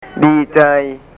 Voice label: "dii djai", Thai